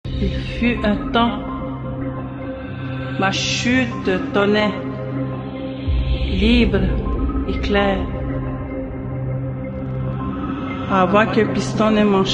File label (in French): narration; COMÉDIENNE; MONTRÉALAISE